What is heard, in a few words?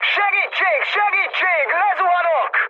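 Hungarian aircraft destroyed mood high, speech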